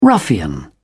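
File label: "ruffian", English, British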